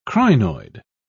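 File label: "crinoid", British English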